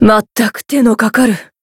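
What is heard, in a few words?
Voice heal, Japanese; minalca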